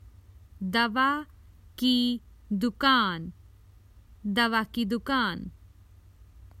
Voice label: Common Hindi Phrases for travel The pharmacy

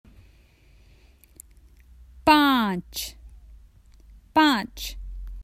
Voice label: Common Hindi Phrases for travel Five

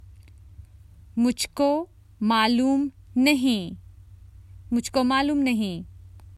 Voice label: I dont know, Common Hindi Phrases for travel

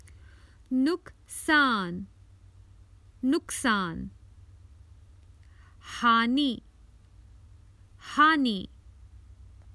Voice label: Damage, Common Hindi Phrases for travel

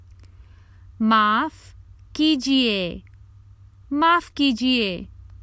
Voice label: I'm sorry, Common Hindi Phrases for travel

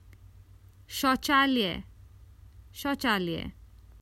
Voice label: Common Hindi Phrases for travel The bathroom

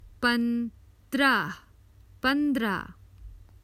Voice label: Common Hindi Phrases for travel Fifteen

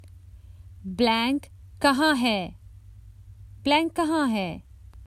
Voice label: Common Hindi Phrases for travel Where is

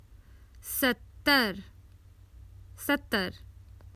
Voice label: Common Hindi Phrases for travel Seventy

